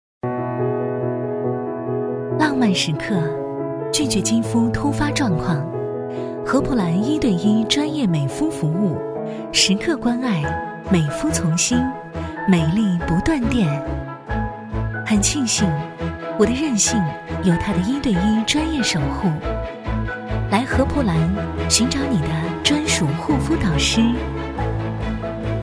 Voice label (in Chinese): 女 广告; 禾葡兰